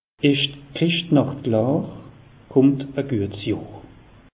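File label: "chrischtnacht", Alsatian; meteo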